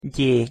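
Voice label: "gy", Hungarian pronunciation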